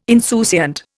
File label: "insouciant", Indian